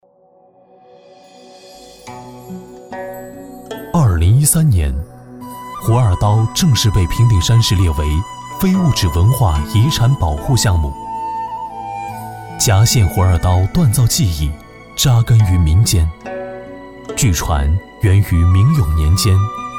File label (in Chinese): 男 纪录片 沉稳浑厚